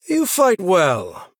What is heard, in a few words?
Male Human Well Played